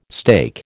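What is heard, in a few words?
"steak", American English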